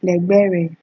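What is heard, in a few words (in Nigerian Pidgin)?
"legere", Naija, female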